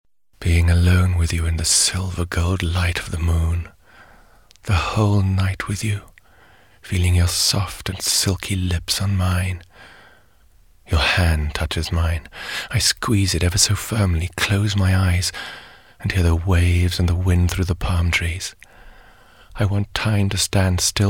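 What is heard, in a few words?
British English, Schauspiel Sanft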